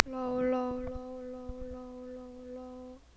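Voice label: "low", spoken word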